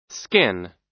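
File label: "skin", American English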